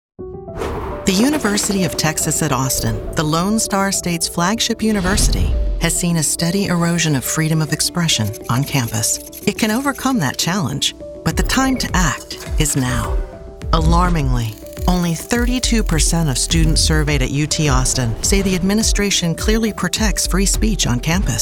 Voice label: Republican Voiceover; Political GOP Voices English

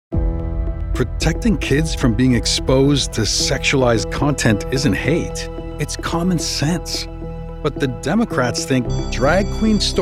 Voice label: Republican Voiceover, Male; Political GOP Voices English MALE

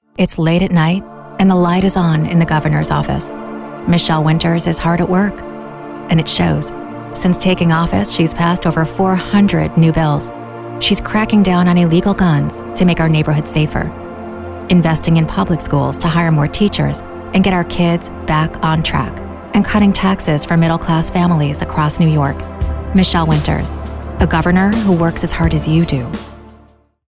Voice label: Democrat Voiceover, Political Voices English

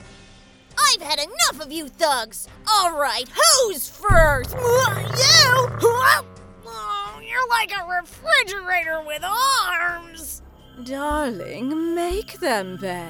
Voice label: Animation, Female Voice Over

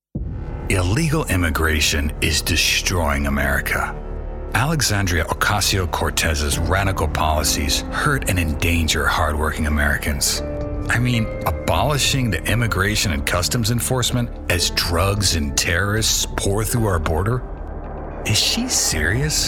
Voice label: GOP Voiceover, Male; Political GOP Voices English MALE

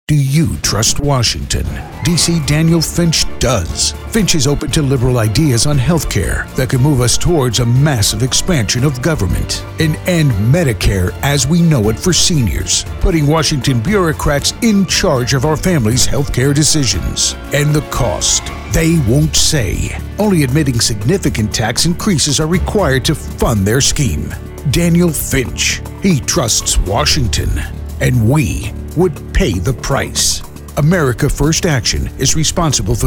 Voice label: GOP Voiceover, Political GOP Voices English MALE

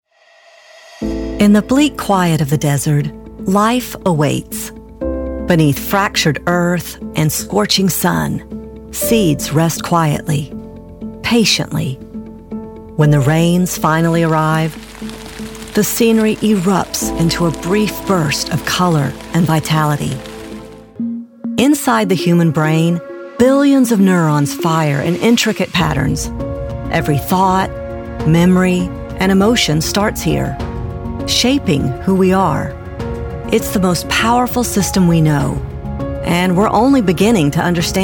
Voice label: Documentary Narration, Female Voice Over

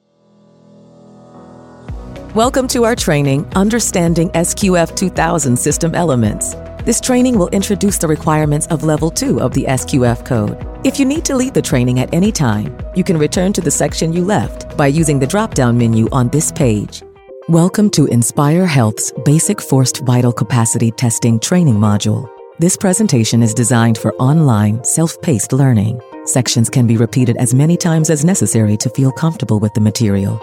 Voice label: eLearning, Female Voice Over

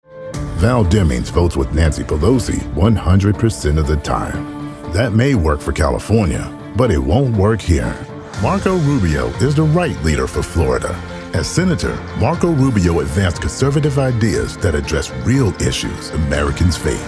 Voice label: Republican Voiceover, Male; Political GOP Voices English MALE

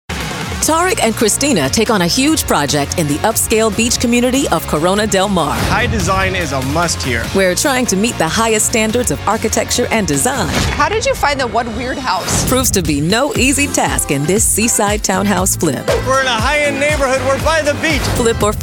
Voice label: Promo, Female Voice Over

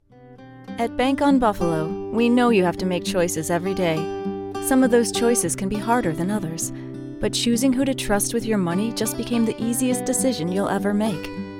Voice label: Commercial, Female Voice Over

